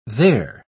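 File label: "they're", British English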